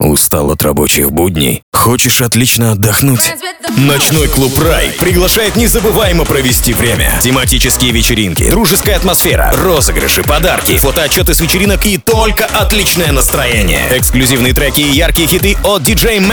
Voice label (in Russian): РЕКЛАМНЫЙ РОЛИК; Клуб Рай - Общий